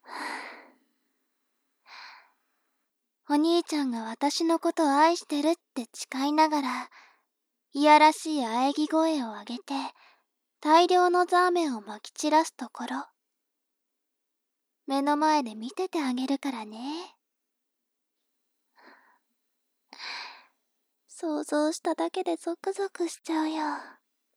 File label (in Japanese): 妹 台詞